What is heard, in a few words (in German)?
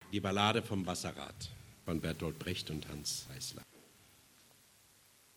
ansage